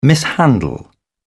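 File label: "mishandle", British English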